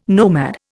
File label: "nomad", Indian